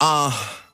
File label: "uh", male